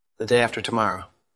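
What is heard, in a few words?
VoiceActing es M2, voice acting, Spanish, male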